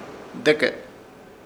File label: "Deke", Ishir Chamacoco; abuelo